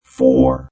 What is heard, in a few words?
Robot Voice "0"